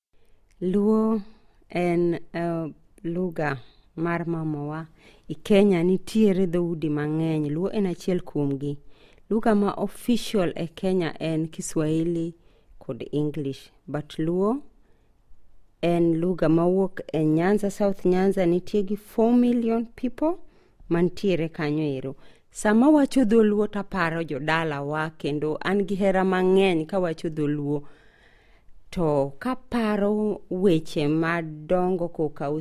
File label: Luo